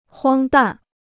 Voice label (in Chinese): "荒诞", Chinese